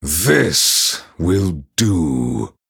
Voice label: Male Night Elf; Kurtrus